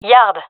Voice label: loc yard, gendarmerie fem; vo; agents